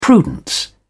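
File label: "prudence", British English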